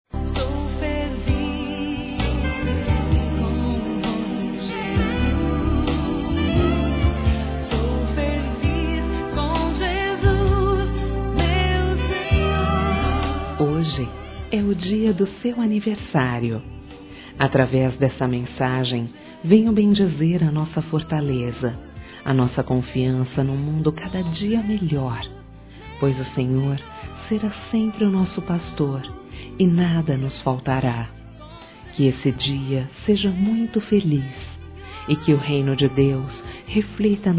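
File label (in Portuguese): ANIVERSARIO ROMANTICO, feminino; Telemensagens; Telemensagens 2017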